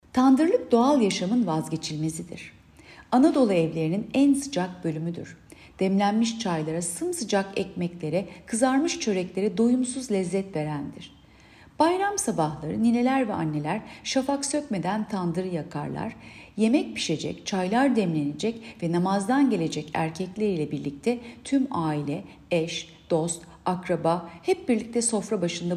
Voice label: turkce